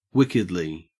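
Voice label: "wickedly", Australian English; pronunciations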